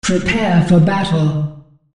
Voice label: Announcer Female Prepare For Battle